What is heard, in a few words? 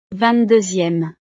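"ving deuxieme", French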